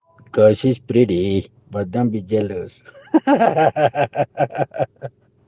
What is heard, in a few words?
because she pretty, chilango english accent